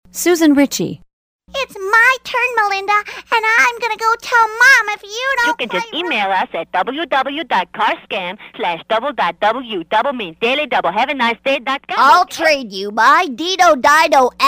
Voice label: Character